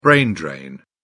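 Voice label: "brain drain", British English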